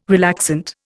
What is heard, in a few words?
"relaxant", Indian